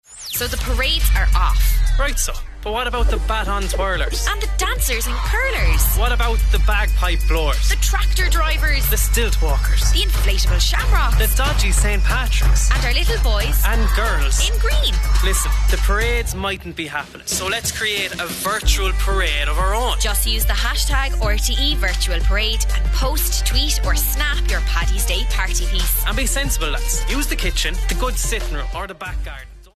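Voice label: English Republic of Ireland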